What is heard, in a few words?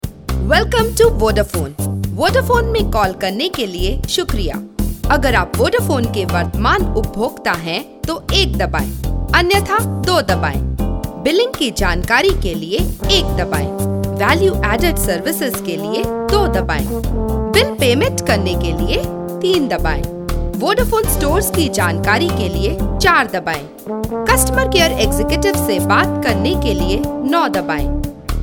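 ivr hindi, female voice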